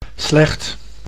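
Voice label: "slecht", Dutch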